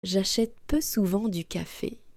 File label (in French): "J'achète peu souvent du café", French